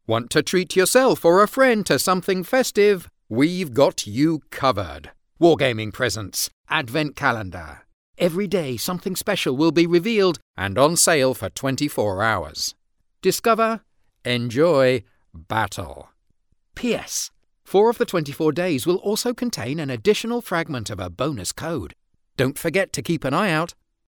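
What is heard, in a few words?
English, British, male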